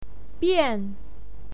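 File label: "bian", Mandarin Chinese, fourth tone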